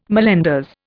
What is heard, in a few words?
"mallenders", Indian